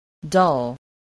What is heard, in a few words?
"dull", English